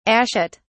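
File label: "ashet", English, British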